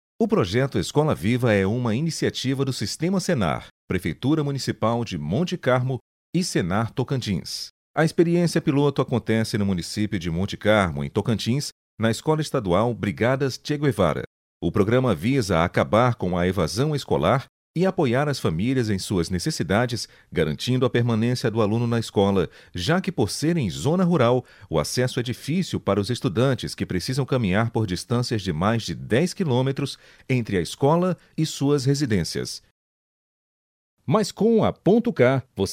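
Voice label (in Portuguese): Masculino, Português - Brasileiro, Locução Institucional, Voz Padrão - Grave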